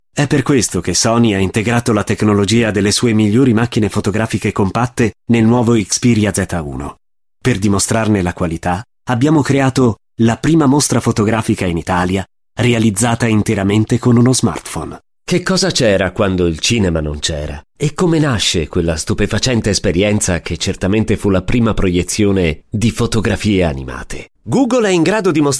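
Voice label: M, Italian, male, narration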